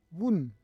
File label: "vun", Roon